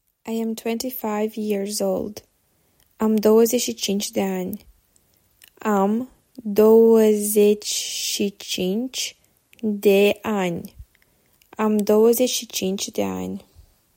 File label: Romanian I am 25 years old